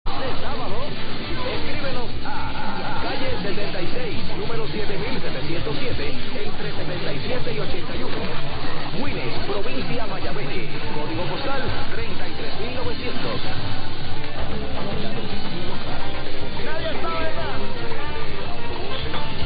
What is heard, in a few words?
Radio Cuba; 1040 Radio Mayabeque